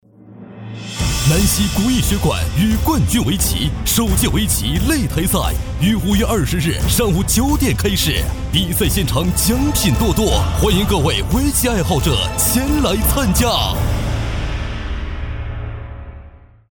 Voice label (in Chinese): 男97-广告-激情有力